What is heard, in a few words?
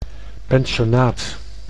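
"pensionnaat", Dutch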